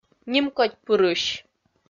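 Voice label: "нимкодьпырысь", Komi-Zyrian, female; kpv audio dict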